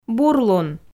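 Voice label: "бурлун", Komi, female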